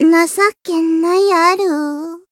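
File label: Voice retire, Japanese; lingli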